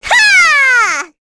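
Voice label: Vox Casting, korean; Rehartna; kingsraid; voices heroes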